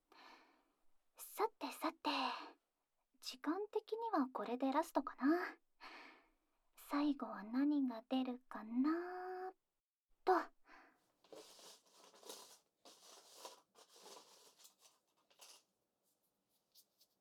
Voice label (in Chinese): 悄聲朗誦十二星座的同時用粉筆書寫聲進行ASMR服務